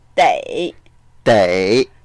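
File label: "dei3", Mandarin Chinese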